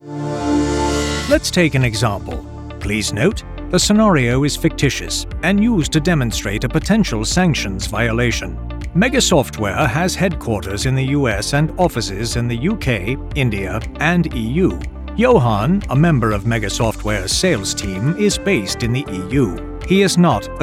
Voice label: British RP Corporate eLearning Business